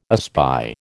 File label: "espy", American